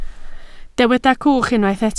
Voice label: "cwch", Welsh